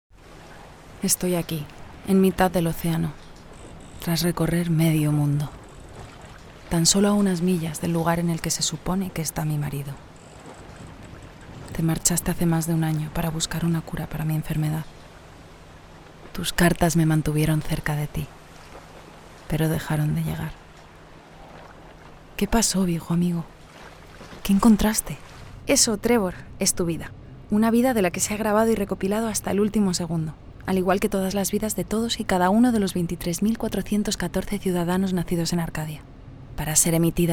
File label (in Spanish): Videojuego ES